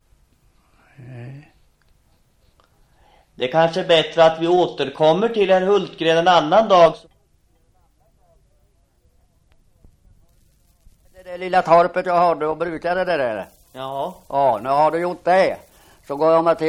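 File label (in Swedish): Svinhult Östergötland, dialekter; Folkminnen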